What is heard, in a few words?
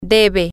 he should, spanish, F